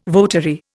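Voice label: "votary", Indian English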